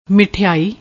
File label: "mitheaai", Punjabi